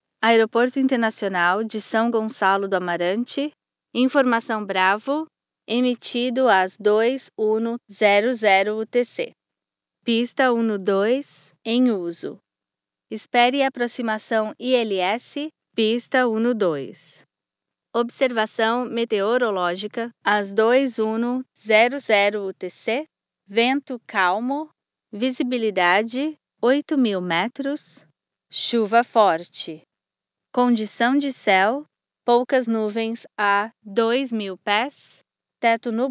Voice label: atis portuguese; starcaster